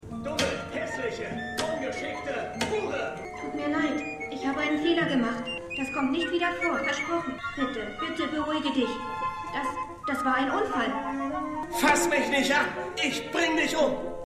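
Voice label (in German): Männliche Puppe Weibliche Puppe; Stimmproben; Fargo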